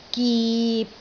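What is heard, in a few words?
"gip6", Cantonese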